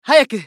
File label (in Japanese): ファイター男･移動